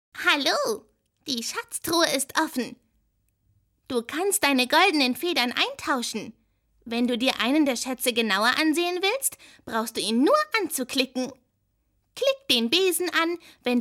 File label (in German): trickstimme